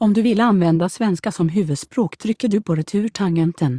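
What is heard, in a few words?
Voice Over Instructions Swedish; Language Chooser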